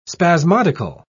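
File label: "spasmodical", British English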